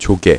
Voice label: clam, kor; My Animated Word Book; sea

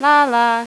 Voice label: "la la", Jen; Spades Deluxe 1.6

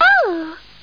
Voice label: Girl

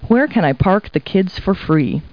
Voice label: people, kid

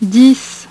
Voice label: "dix", French; HyperSound™2

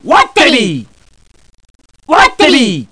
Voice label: title call

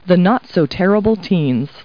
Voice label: people, kid

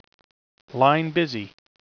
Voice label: Line Busy (Male)

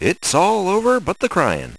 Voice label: very happy; Spades Deluxe 1.6

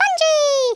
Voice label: BUNGEE, Speech, English; worms a

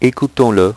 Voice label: "ecoutons le", French; HyperSound™2

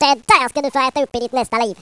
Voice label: threat; Swedish

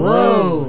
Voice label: Surprise

